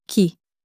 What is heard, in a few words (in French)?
"qui", French